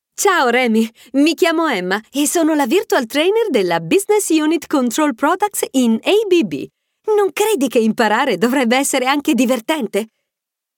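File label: Italian, female; character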